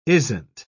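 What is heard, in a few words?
"isn't", British English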